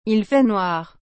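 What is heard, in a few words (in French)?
"Il fait noir", French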